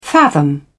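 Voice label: "fathom", British English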